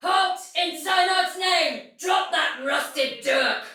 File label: See Player Soldier Female, Battle; Dialog; Sinecure